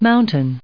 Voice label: "Mountains", us

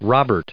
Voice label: "Robert", us

